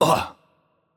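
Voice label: Italian hurt light voice; bloom minigame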